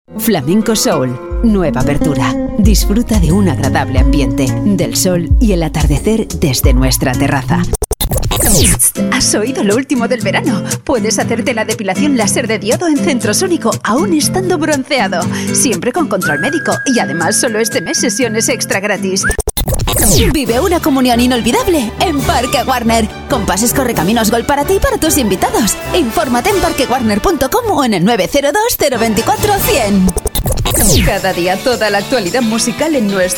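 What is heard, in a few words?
female Castilian Spanish voice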